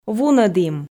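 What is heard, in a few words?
"вунӧдім", Komi, female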